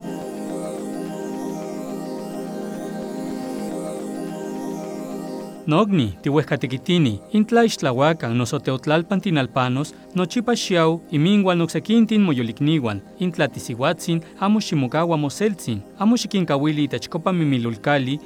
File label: NAHUATL